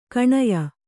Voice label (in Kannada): "ಕಣಯ", Kannada